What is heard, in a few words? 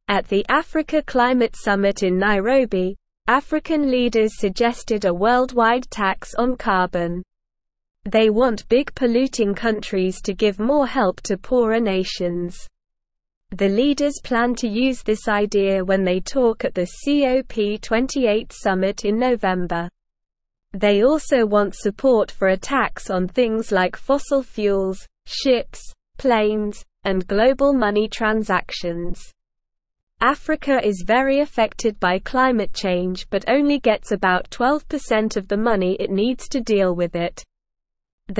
English Lower Intermediate SLOW Reading; African Leaders Want World Tax to Help Earth; English Newsroom